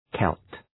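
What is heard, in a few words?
"Celt", English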